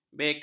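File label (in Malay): "beka", Malay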